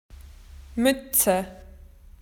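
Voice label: "hat", Australian English; PictureDictionary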